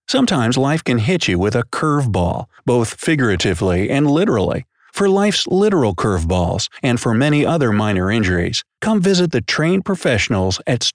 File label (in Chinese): 外籍配音美式男 文艺诗歌 活泼轻快